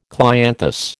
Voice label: "clianthus", American

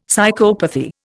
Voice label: "psychopathy", Indian; Dictionary

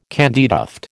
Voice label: "candytuft", American

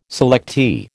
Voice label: "selectee", American

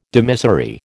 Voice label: "dimissory", American

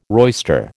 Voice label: "roister", American

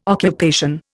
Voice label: "occupation", Indian

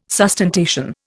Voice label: "sustentation", Indian

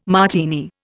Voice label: "Martini", Indian

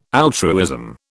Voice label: "altruism", British

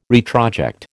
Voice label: "retroject", American

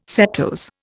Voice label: "setose", Indian

